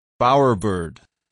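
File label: "bowerbird", English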